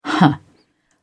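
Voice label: "huh", English, uk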